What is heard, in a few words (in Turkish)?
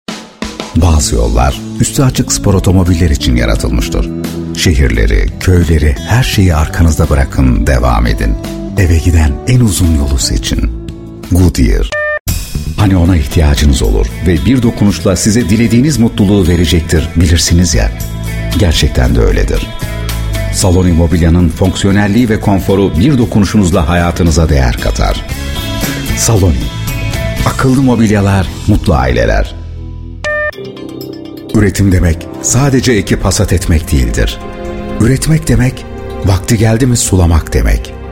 reklam, Reklam Filmi Seslendirme; Reklam Filmi Projeleriniz için Profesyonel Seslendirmenler ile Seslendirme Hizmeti; BiberSA Prodüksiyon